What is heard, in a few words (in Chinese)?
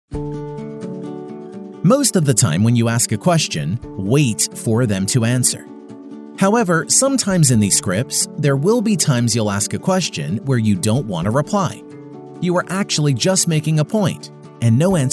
男美式英文专题面试技巧